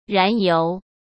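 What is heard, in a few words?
"燃油", Mandarin Chinese; chinese flashcards; hsk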